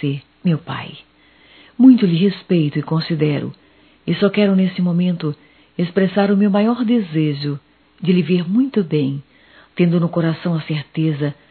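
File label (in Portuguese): Ano novo para mãe female; Natal & Ano Novo; Telemensagens 2017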